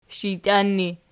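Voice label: shijtian ergative, Archi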